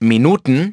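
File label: "minutes", English; iOS 4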